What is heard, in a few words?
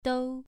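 "dou" Mandarin, second tone, pronunciation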